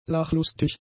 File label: "lachlustig", German; adjectives